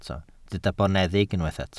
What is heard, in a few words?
"boneddig", welsh